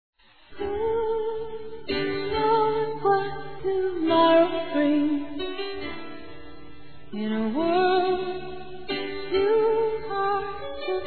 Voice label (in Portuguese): CONQUISTA DIRETA F; Telemensagens; Conquistas Cantadas paqueras; fem; Telemensagens 2017